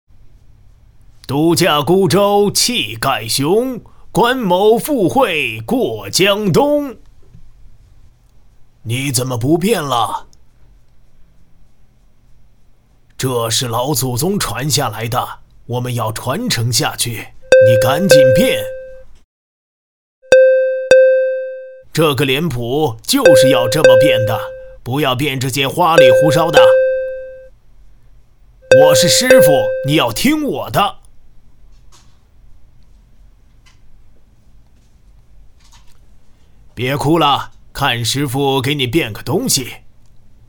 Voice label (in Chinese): 男 模仿 老头 剧本中人物